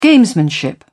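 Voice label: "gamesmanship", English, British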